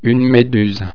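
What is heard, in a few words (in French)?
"meduse", French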